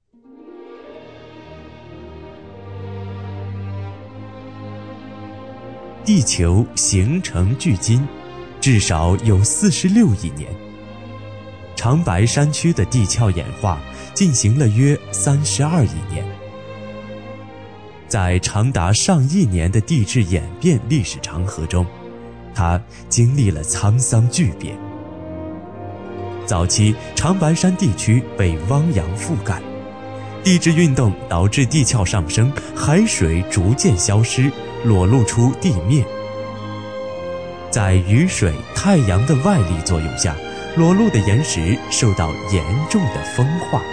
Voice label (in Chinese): 男 专题; 长白山